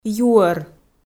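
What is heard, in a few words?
"юӧр", Komi, female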